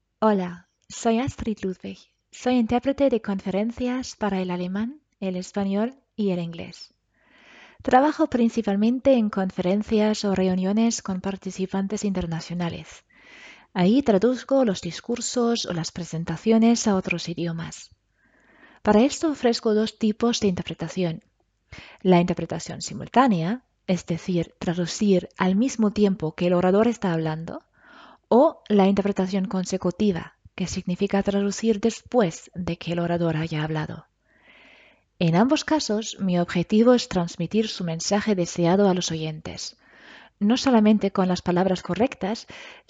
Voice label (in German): hoerprobe spanisch